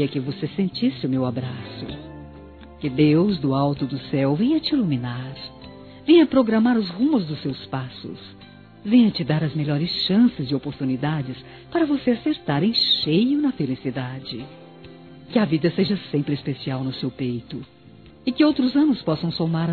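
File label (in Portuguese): ANO NOVO NEUTRO, Feminino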